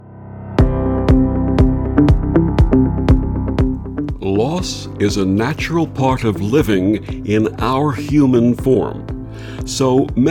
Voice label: Audio Promo